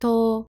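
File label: "と", Japanese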